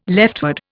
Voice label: "leftward", Indian